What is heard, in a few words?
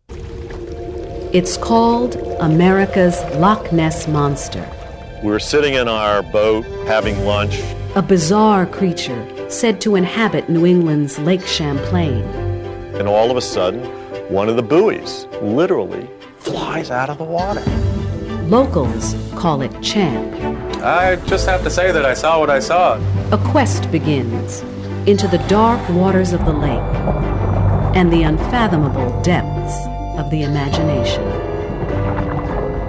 narration